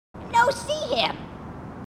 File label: grunt; h2dialogue; grunt crazy